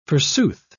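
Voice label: "forsooth", English, British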